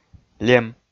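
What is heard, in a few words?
"лем", Komi, male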